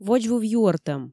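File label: "водзвыв юӧртӧм", Komi, female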